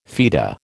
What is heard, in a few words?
"feta", American